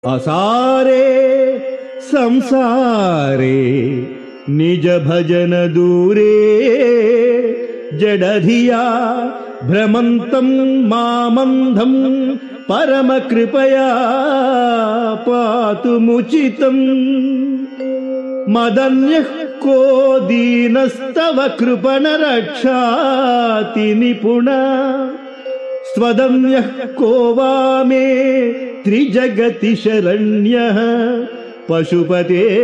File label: Sanskrit; Sri Adi Sankaracharyas Sivananda Lahari; Adi Shankaracharya; श्रीशिवानन्दलहरी १३